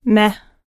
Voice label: "meh" dictionary sound effect; google